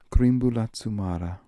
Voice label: "Kreemboolazoomara", pronounciations; Fourth Way; Beelzebub

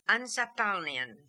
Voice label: "Ansapalnian", pronounciations; Fourth Way; Beelzebub

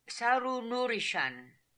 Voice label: "Saroonoorishan", pronounciations; Fourth Way; Beelzebub

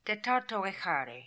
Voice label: "Tetartoehary", pronounciations; Fourth Way; Beelzebub

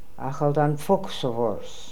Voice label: "Akhaldanfokhsovors", Beelzebub pronounciations; Fourth Way

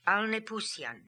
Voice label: "Alnepoosian", pronounciations; Fourth Way; Beelzebub

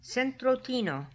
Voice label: "centrotino", pronounciations; Fourth Way; Beelzebub

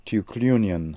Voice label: "Tookloonian", pronounciations; Fourth Way; Beelzebub

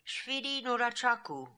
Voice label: "Shvidi Noora Chakoo", pronounciations; Fourth Way; Beelzebub

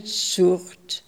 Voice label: "Soort", pronounciations; Fourth Way; Beelzebub

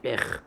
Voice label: "ekh", pronounciations; Fourth Way; Beelzebub

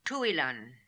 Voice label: "Tooilan", pronounciations; Fourth Way; Beelzebub

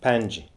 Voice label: "Pianje", pronounciations; Fourth Way; Beelzebub

